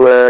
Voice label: "were", VIDEO GAMES; wow

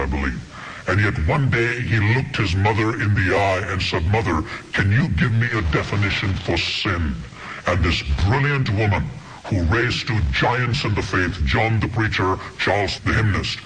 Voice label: demonic definition of sin loop, Preaching; Evangelists and Preaching and Religious

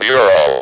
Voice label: speak and spell "BUREAU"; WORDS

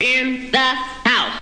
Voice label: in the house, male spoken word; MALE MISC

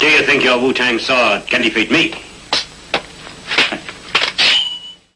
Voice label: can your sword can defeat me, MALE SPOKEN WORD

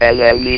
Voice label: "enemy", votrax; VIDEO GAMES

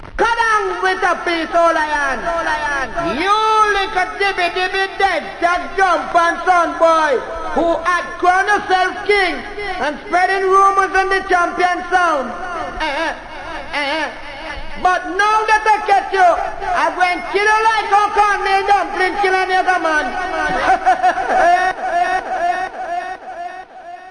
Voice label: rasta speech, Rastafarian

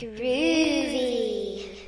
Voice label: "groovy", children

